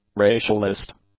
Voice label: "racialist", English; adjectives

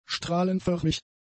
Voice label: "strahlenförmig", German; adjectives

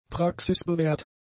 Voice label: "praxisbewährt", German; adjectives

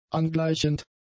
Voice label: "angleichend", German; adjectives

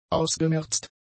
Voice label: "ausgemerzt", German; adjectives

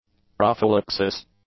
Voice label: "prophylaxis", English; nouns

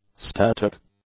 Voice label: "static", English; adjectives

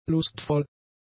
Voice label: "lustvoll", German; adjectives

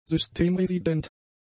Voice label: "systemresident", German; adjectives

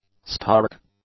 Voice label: "stark", English; adjectives

